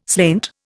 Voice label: "slainte", Indian

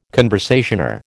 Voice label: "conversazione", American

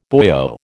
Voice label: "boyo", American

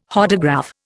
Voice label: "Hodograph", Indian

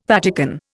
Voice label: "Vatican", Indian

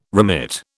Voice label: "remit", British

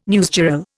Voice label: "newsgirl", Indian

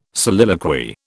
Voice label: "soliloquy", British

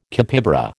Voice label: "capybara", American

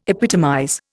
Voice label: "epitomize", Indian

